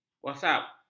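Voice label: "wasap", Malay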